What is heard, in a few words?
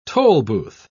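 "tolbooth", British English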